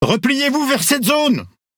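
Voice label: French Speech Quick Commands Ally Point; World Of Warships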